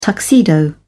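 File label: "tuxedo", English, British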